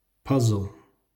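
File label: "puzzle", English; how many toys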